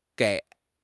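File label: "gei3", Chinese; HSK; HSK2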